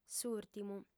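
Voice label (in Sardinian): "s'ùrtimu", female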